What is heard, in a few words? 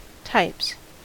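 "types", US English; pronunciations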